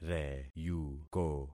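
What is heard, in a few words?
"reyuko", concatenated syllables; Affixing experiment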